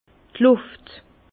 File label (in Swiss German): "luft", Bas Rhin